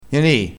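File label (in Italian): "sei", vocabolario dialettale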